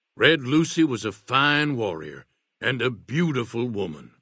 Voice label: male old greeting; Fnv